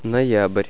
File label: "november", russian